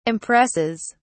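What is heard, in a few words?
"impresses", British English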